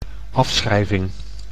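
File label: "afschrijving", Dutch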